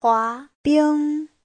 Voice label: "hua2bing1", chinese; 滑冰 : trượt băng; Giáo trình Hán ngữ